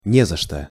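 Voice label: pronunciation "не за что", Russian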